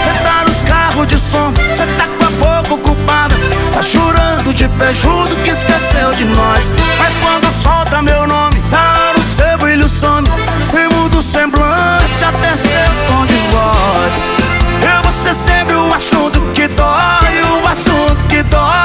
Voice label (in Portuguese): locutor a voz magnifica